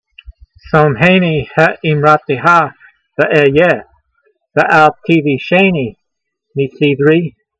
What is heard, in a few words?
voice, hebrew language; Psalm 119:116; somhaynee he'eemrateha ve'ey'ye, ve'al-teveeshaynee meeseevree